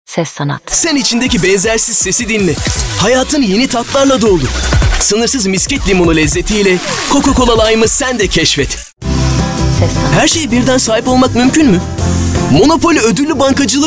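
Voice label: Turkish, seslendirme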